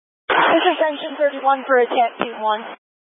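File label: Incident Tac 1, Scanner audio recording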